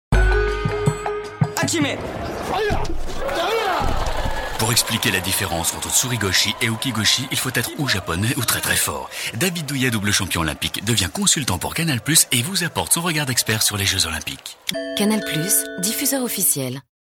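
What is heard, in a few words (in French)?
PUB, voix; Canal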